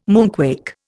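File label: "moonquake", Indian English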